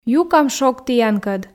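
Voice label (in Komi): "юкам шог тіянкӧд", Komi, female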